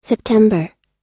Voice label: "september", US; September – Setembro; Datas em Inglês